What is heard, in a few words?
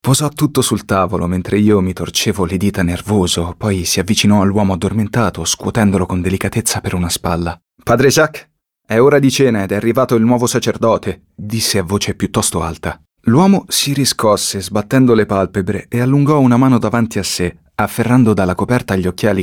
male italian comercial; ideasonora